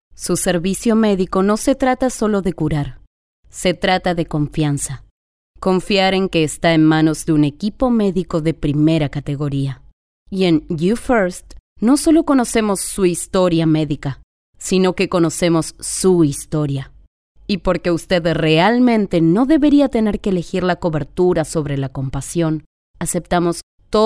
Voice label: Sincere